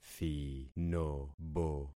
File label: "thinobo", concat syllables; Affixing experiment